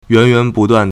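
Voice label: "yuányuánbùduàn", Chinese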